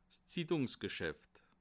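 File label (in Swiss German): "zittungsgschaft", Bas Rhin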